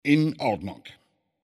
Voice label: Neutral Yes Layer; Speech; Civ5; Bismark

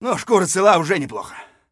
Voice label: scav mutter, voice; Escape from Tarkov; scav1

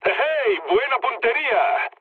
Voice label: bomb success, mood low, speech; War Thunder

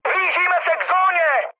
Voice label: near waypoint, speech cze, mood high; War Thunder

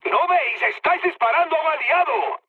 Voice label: player friendly fire, mood low, speech; War Thunder

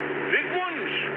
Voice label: player killed enemy, mood med, speech ge

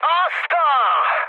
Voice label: trick, mood high, speech hun; War Thunder

